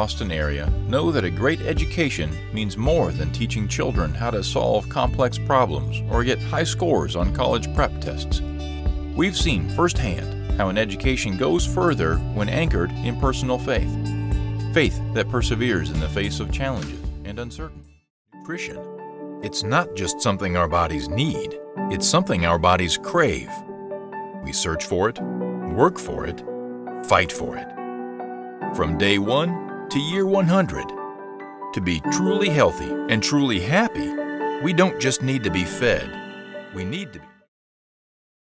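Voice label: VO with music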